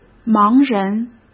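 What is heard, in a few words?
"盲人", Mandarin Chinese; chinese-flashcards; hsk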